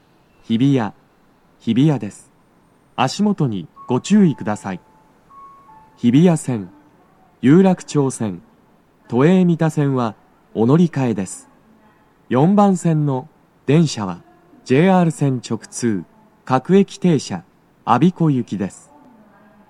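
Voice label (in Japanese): 到着放送 男声, 各駅停車 我孫子行; 日比谷駅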